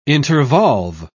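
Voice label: "intervolve", British English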